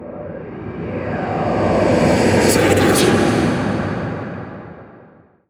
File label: Horror Creepy Whispering Voice